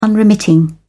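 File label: "unremitting", British English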